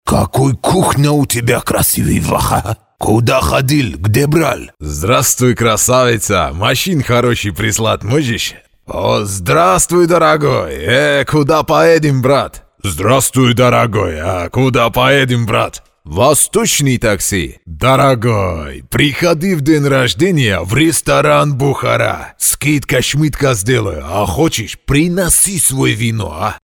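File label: Gruzin, Georgian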